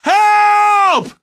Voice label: help, male; Garry's Mod; hl2; Streetwar

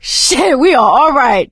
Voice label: hurrah, survivor voice; Left 4 Dead 2; producer

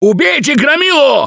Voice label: grabbed by charger, russian, Survivor Voice

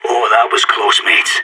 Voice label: radio bot end close, player vo sas

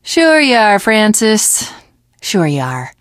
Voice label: teengirl, voice; Left 4 Dead 2; l4d1 finale

